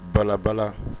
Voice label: "balabala", Bambara